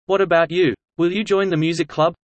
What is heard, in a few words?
fast; studying abroad